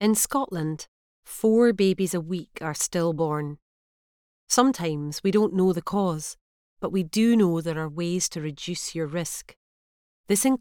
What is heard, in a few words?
Relatable Corporate